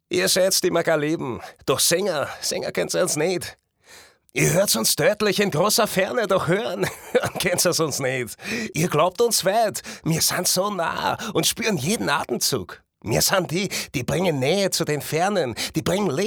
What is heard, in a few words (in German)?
Dialekt oesterreichisch, maenner